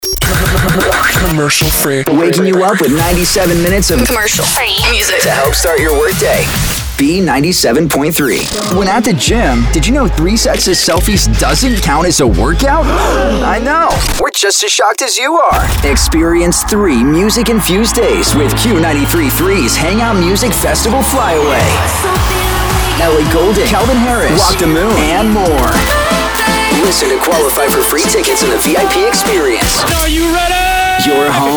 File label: radio men hot ac, radio imaging